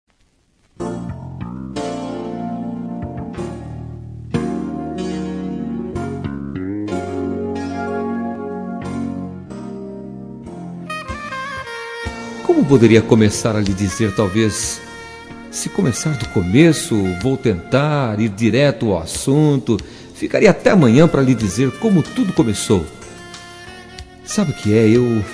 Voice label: PAQUERA MASC; Telemensagens; Conquistas Cantadas paqueras; Telemensagens 2017

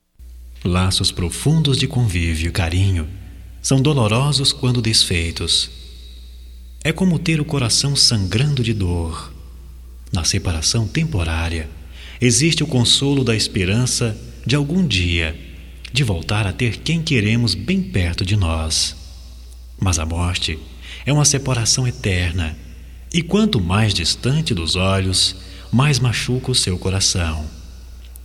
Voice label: PESAMES GERAL M, Só Voz, masc; Telemensagens; Telemensagens 2017

